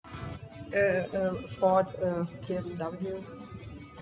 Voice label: conv chapel near music